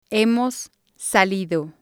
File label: "hemos salido", spanish